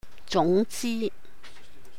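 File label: "júng jì", Cantonese; 總之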